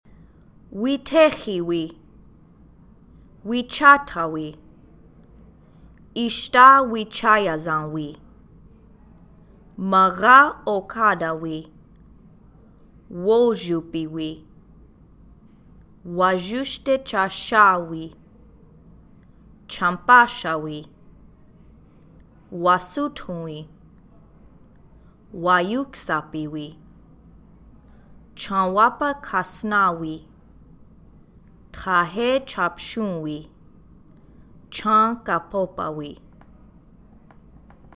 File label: Dakota Months